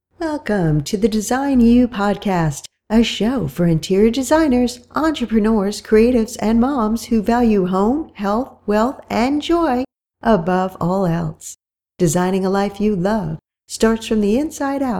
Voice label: podcast Intro Outro

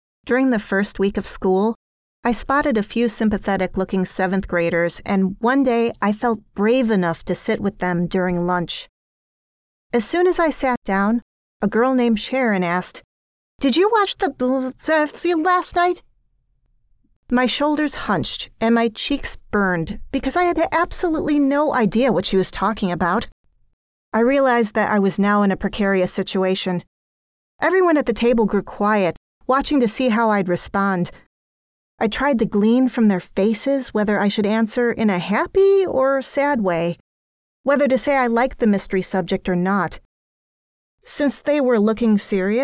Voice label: Audiobook Tween

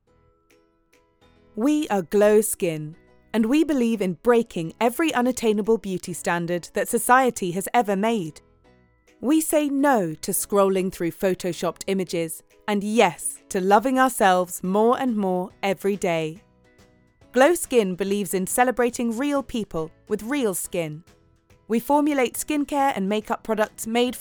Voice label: Explainer; Glow Skin

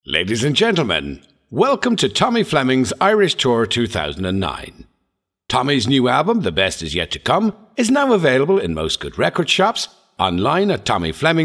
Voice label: General Opening

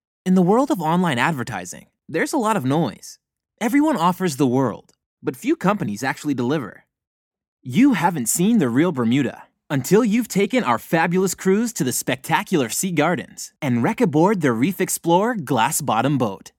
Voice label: Youth Spot

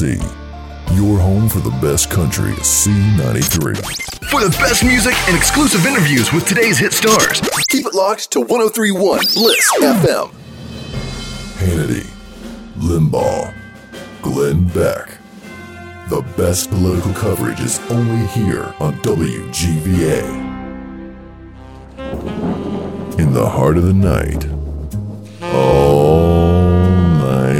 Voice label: Radio

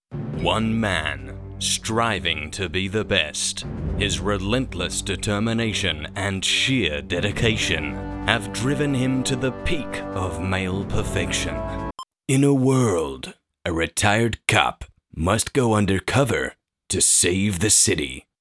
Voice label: trailers

